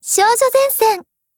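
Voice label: TITLECALL Japanese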